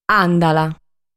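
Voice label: "Àndala", Sardinian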